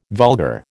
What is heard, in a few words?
"vulgar", American